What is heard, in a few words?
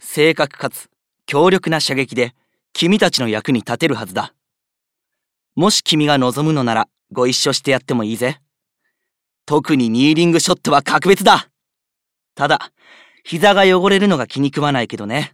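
voice intro, male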